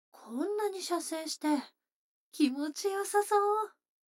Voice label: 女の子台詞, ASMR

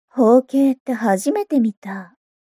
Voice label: mob 女子 台詞

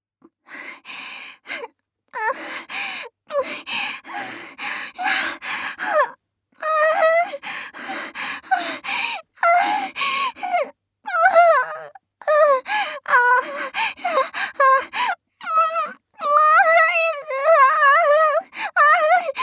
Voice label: 添い寝; 今夜はこのまま……。; 効果音抜き

